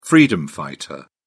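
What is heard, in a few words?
"freedom fighter", British English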